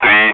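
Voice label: Speech, Russian, air traffic control; Digits; DCS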